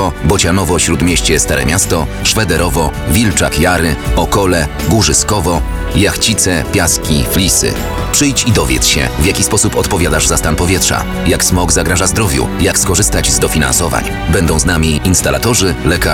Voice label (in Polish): spot radiowy; Edukacja ekologiczna